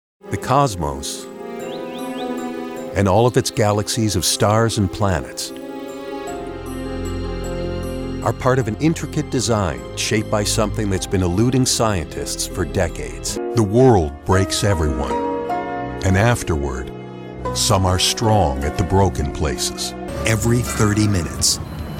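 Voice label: narration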